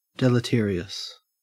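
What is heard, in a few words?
"deleterious", Australian English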